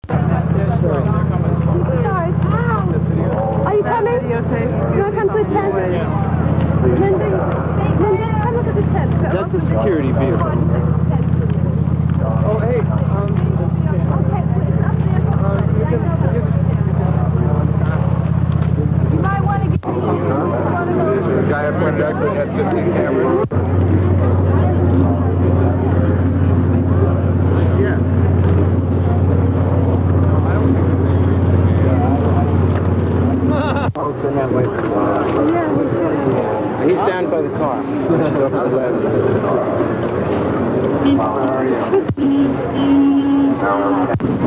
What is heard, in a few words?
Announcement Buried Under Dialogue; 1969 - Woodstock